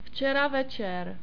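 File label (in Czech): "včera večer", czech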